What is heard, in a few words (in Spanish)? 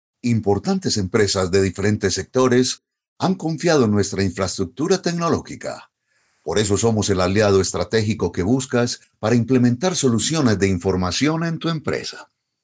CORPORATIVO